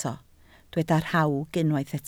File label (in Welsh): "rhawg", Welsh